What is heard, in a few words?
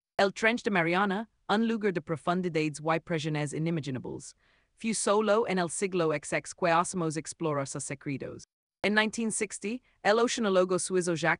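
voiceover, Spanish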